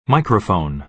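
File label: "microphone", American English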